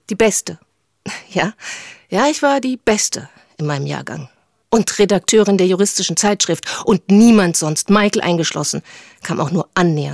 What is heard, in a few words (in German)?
Dialog Juristin